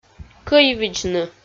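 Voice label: "кӧйвидзны", Komi-Zyrian, female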